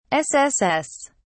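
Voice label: "sss", British English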